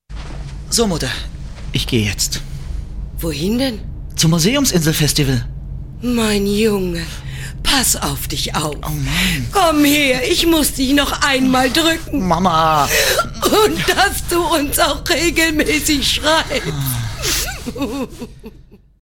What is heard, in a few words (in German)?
Werbung, Werbesprecherin; Sprachproben; Museumsinsel-Festival